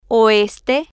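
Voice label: "oeste", Spanish, female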